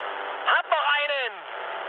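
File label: German player killed enemy mood high, speech; War Thunder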